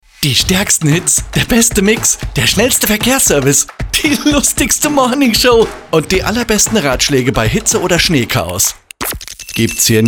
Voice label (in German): Station ID